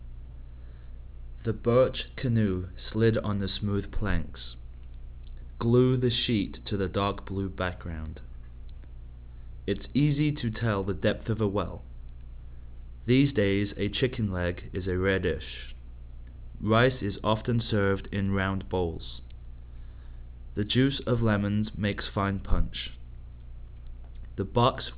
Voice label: british, open speech; uk